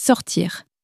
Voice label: "sortir", French

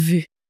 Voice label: "vu", French

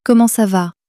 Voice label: comment ça va, common french words

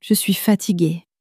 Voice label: je suis fatigué, common french words

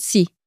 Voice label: "si", French

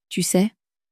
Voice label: "tu sais", French

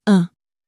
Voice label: "un", French

